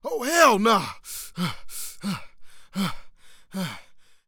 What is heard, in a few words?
Panic; zps; Humans; HumanCop